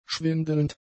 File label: "schwindelnd", German; adjectives